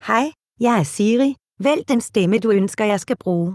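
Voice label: Danish, Denmark; iOS 26 Sounds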